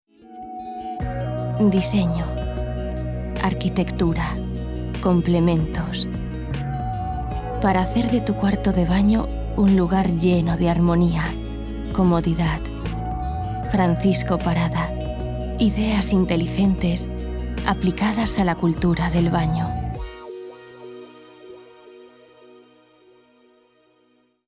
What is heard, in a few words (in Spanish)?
publicidad castellano mujer